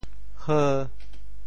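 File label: "heu5", Teochew; 潮州; 狗母鱼; 虾虎鱼、大头狗母鱼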